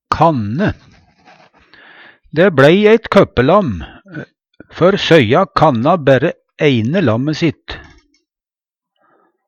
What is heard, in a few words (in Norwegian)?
kanne, Numedalsmål